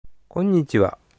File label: "konnichiwa", Japanese utterance